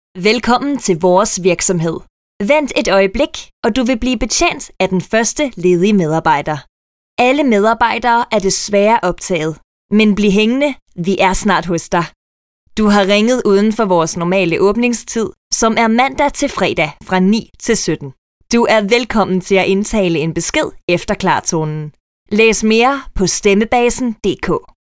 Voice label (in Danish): DK UFORMEL KVINDE; Stemmebasen